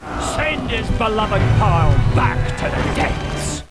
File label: back to the depths, pirate